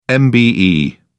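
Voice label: "mbe", British English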